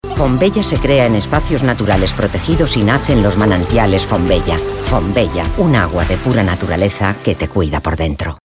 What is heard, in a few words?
female spanish comercial; ideasonora